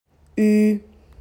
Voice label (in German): buchstabe ue, deutsches alphabet